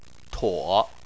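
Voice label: "tuo", Chinese, third tone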